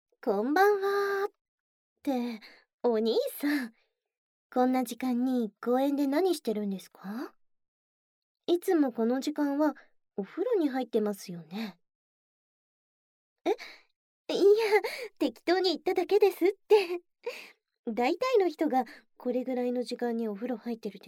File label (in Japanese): 現役JKの耳元囁き耳舐め素股